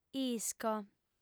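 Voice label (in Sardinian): "isco", Sardinian, female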